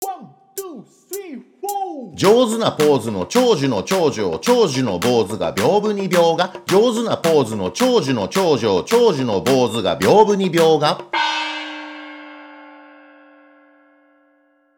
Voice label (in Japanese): 早口言葉リズム（中級編）